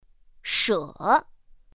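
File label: "shě", Mandarin Chinese pinyin; pinyin2; pinyinji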